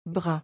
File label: "brun", French